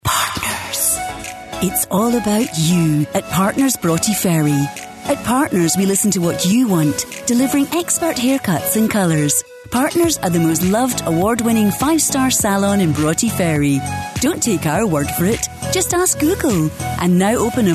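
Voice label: English Scottish, female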